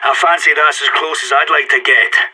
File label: radio bot end close, player vo sas